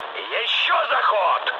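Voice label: Russian bomb failure mood high, speech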